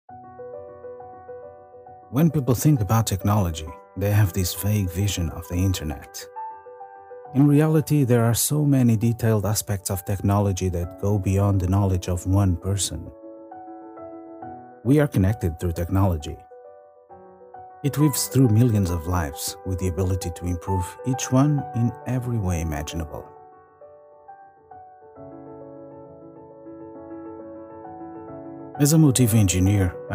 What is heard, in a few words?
Corporate ENG Motiva